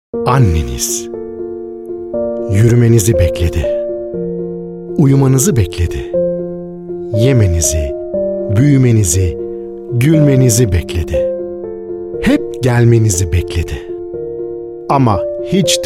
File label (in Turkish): reklam